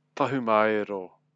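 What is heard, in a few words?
"tahumaero", Māori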